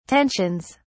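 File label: "tensions", British English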